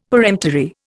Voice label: "peremptory", Indian; Dictionary